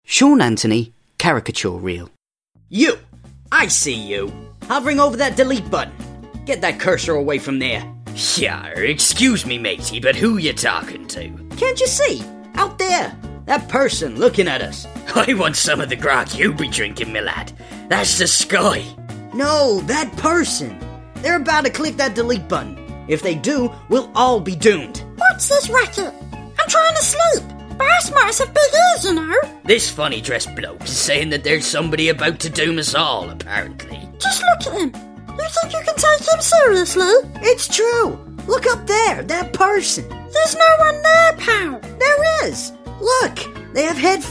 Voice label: English British animation